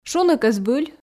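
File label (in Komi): "шуны кӧ збыль", Komi, female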